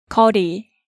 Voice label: "Geori", Korean